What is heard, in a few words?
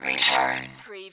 Robot voice, "return"; lynne publishing